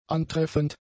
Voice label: "antreffend", German; adjectives